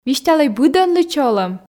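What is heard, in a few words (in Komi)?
"висьталӧй быдӧнлы чолӧм", Komi, female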